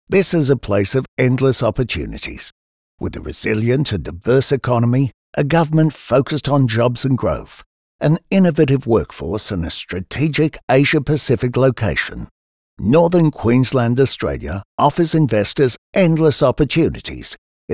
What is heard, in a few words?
CORPORATE